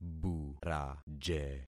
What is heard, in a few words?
"buraje", concat syllables; Affixing experiment